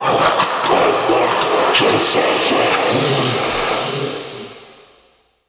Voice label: Mockery Male Vocal Enemy Spotted; Characters; Mockeries

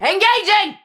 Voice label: Player Spotted Ranged, Battle Barks; Tau; Tau Dax

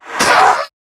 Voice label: Pain; Zariman Wraith; en

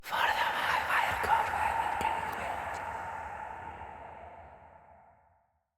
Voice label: Bb Whis Circade en, Dialog; Encounters

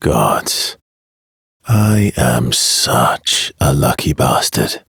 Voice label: Ambient Barks, en; Warframe; Mall Romance; Arthur

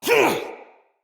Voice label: Attack, Battle, Soldier Male; Barks; Dialog; Dendrit

